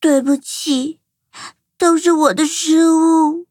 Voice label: defeat, zh-hant; Cookie Run